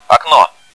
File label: window, bot; cstrike